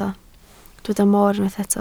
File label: "moor", Welsh